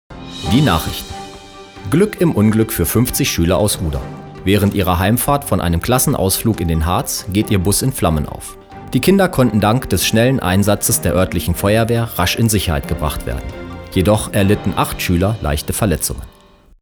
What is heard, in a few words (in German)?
nachrichten